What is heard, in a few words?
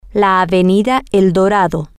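Spanish, female; el Dorado Ave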